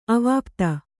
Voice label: "ಅವಾಪ್ತ", Kannada

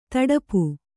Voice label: "ತುಡುಪು", Kannada

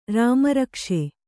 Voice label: "ರಾಮರಕ್ಷೆ", Kannada

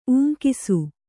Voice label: "ಊಂಕಿಸು", Kannada

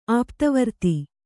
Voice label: "ಆಪ್ತವರ್ತಿ", kannada

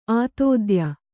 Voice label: "ಆತಿಥೇಯಿ", Kannada

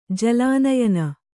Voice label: "ಜಲಾನಯನ", Kannada

